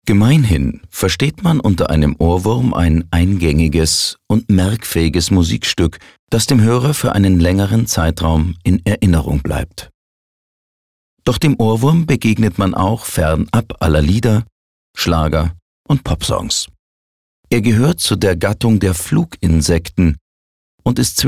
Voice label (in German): Neutral; Ohrwurm